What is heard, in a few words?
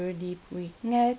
Thank you backwards